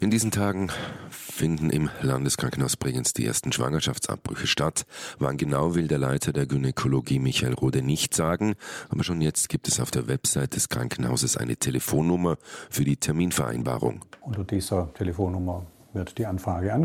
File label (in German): radio nachrichten; oerf radio vorarlberg; nachrichten 6-30